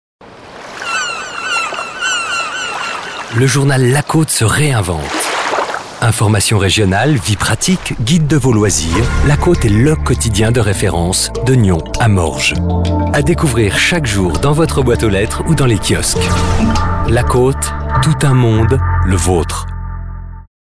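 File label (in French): Swiss French, male, commercial; voice demos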